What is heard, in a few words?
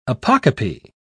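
"apocope", British English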